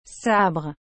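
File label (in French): "sabre", French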